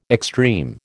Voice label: "extreme", American

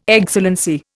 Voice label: "Excellency", Indian

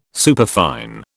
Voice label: "superfine", British

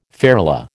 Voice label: "ferula", American

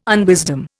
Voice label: "unwisdom", Indian

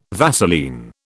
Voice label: "Vaseline", British

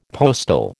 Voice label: "postal", American

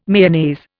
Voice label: "Mayonnaise", Indian

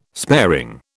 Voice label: "sparing", British

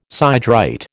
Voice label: "siderite", American

